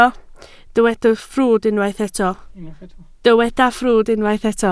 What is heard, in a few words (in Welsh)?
"ffrwd", Welsh